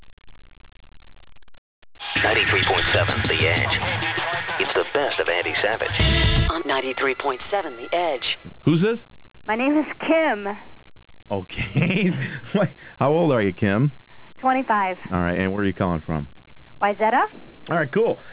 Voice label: radio prog